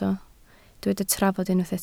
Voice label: "trafod", Welsh